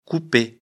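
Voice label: "couper", French; afrikalan; lecture fr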